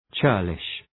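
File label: "churlish", English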